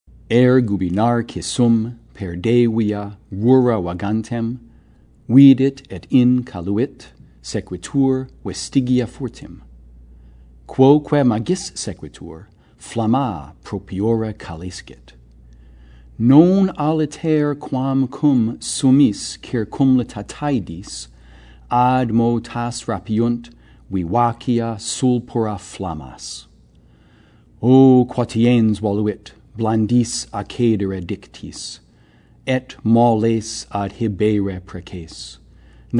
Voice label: Latin response